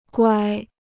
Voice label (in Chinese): "乖", Chinese